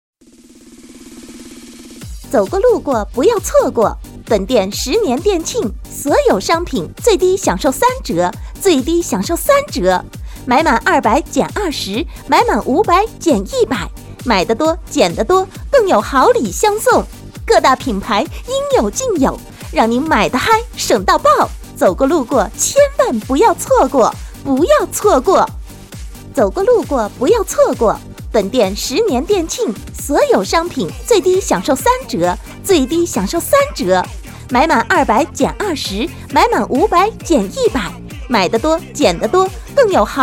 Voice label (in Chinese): 门店促销广告